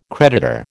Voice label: "creditor", American